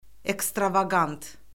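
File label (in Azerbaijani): "ekstravaqant", Azerbaijani; Orfoqrafiya Lüğəti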